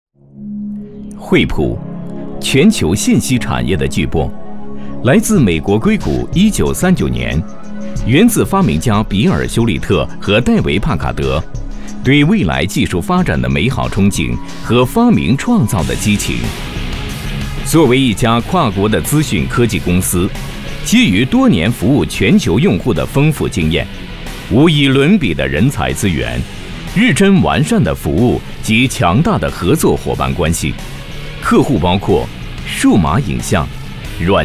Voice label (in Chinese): 男 电子类; 惠普